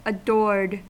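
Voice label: "adored", US English; pronunciations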